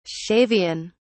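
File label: "shavian", British English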